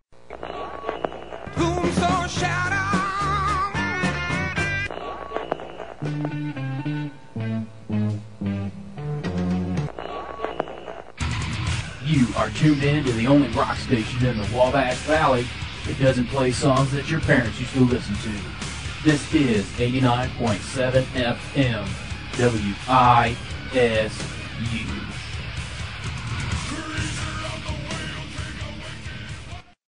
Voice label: english american promos

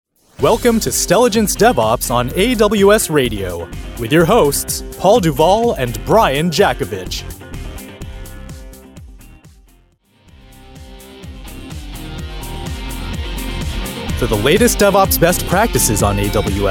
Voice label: english american podcasts

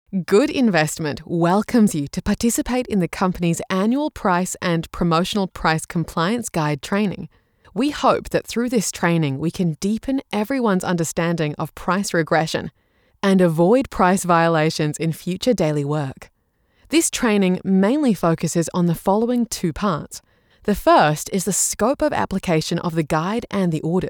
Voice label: english australian e-learning

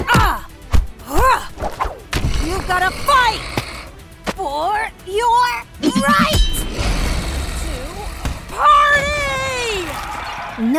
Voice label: english american animation

